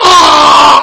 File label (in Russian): muzhskoj golos